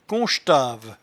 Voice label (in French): "conchtave", patois jura